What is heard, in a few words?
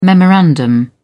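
"memorandum", British English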